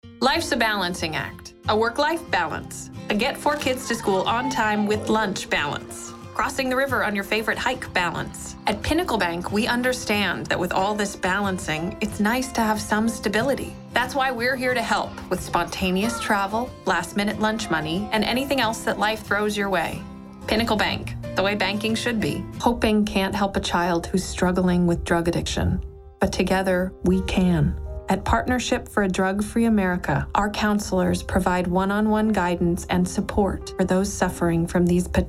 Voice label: Commercial